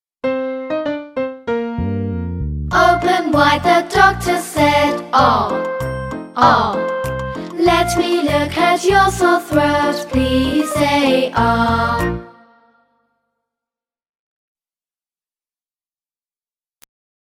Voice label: Phonics Ar